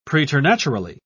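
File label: "preternaturally", British English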